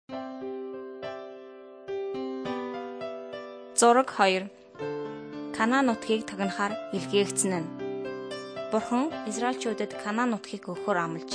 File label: mongolian